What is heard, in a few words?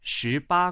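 "shi2 ba1", Chinese, male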